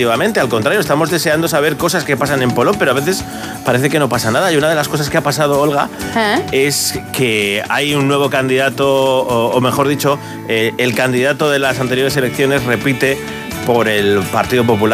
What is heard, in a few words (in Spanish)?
entrevista radio; pp polop; radio sirena COPE